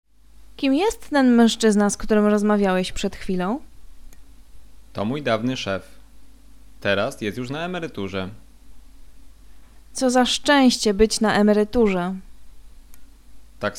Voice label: conversation; lektorek; firstyear